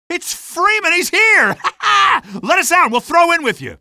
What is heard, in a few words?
he's here, male; Half-Life 2; streetwar; nexus